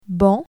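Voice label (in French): "banc", French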